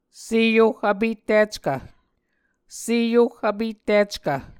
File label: "seohvpettickv", Muscogee